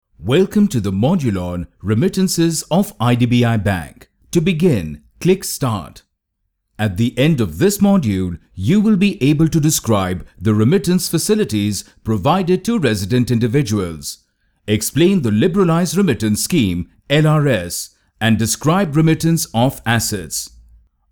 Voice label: eLearning Finance; IDBI